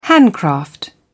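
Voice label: "handcraft", British English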